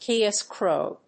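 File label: "key escrow", English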